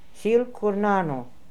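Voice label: "Silkoornano", pronunciations; Fourth Way; Beelzebub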